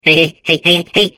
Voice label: babble; portal2